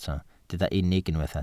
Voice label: "unig", welsh

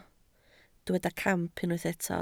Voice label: "camp", Welsh

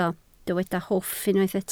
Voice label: "hwff", Welsh

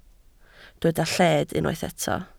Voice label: "lled", Welsh

